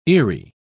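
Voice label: "eery", British English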